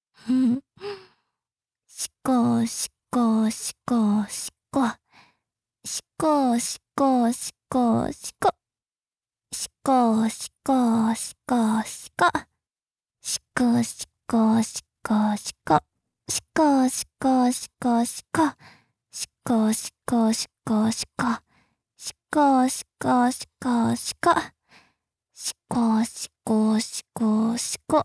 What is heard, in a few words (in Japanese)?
しこしこの掛け声で自慰を促す; 実用ボイス抜粋集; 自慰支援(普)